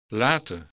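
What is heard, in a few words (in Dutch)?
"laten", Dutch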